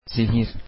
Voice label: poison, turkish

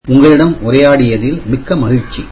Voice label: tamil It was nice talking to you

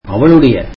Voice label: tamil her

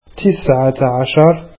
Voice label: arabic nineteen

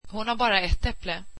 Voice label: swedish she only has one apple

